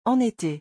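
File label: "en ete", French; vocabulaire; le lexique des vacances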